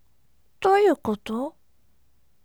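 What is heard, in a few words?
voice, bright; douiukoto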